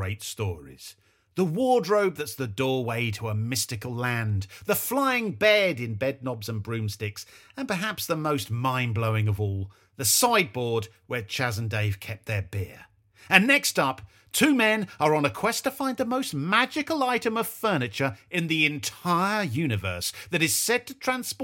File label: Comedy Club